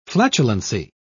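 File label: "flatulency", British English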